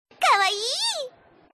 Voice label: kawaii